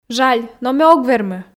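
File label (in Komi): жаль но ме ог вермы, Komi, female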